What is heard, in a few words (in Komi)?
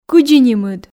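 кыдзи нимыд, Komi, female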